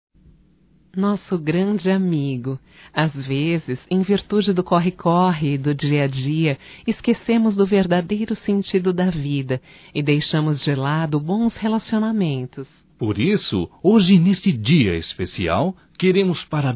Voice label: Saudades amizade duas vozes, Só Voz; Telemensagens; fem

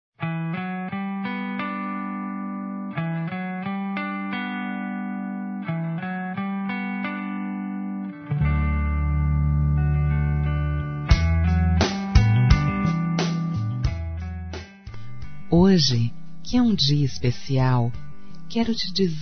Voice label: casados Feminino; Telemensagens; Dia Dos namorados; Telemensagens 2017

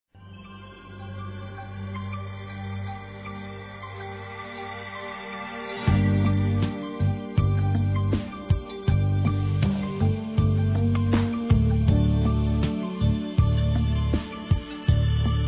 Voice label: DISTANTE

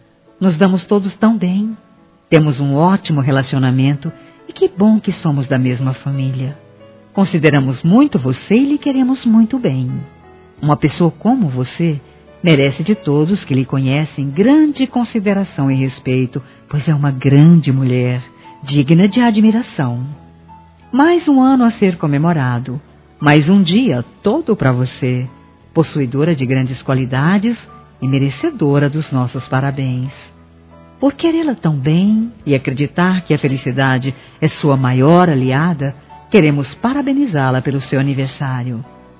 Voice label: cunhada f; Aniversários; Aniversário Distante; Fem

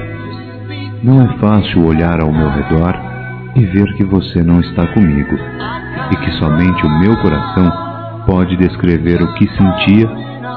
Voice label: Masculino, Dia Dos namorados; Distantes; Telemensagens 2017

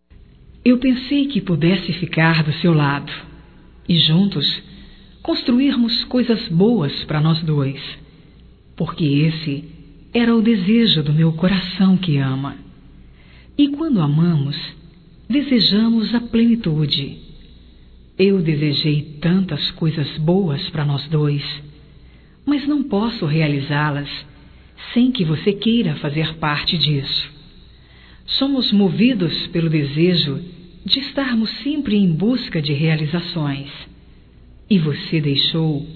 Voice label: VC FOI SOMENTE UM SONHO, Românticas fem

